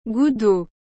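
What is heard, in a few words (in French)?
"goutte d'eau", French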